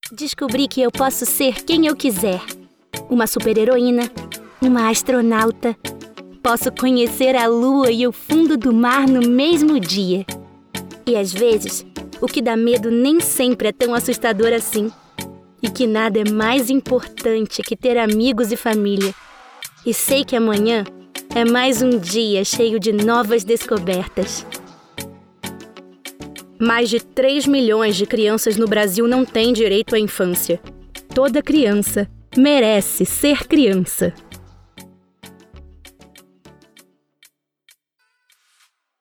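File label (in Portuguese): Português - Brasileiro, Voz Feminina Infantil - Campanha sobre trabalho infantil, Voz Infantil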